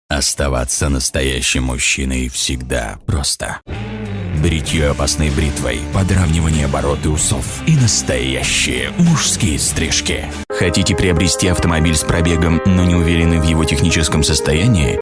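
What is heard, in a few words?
Russian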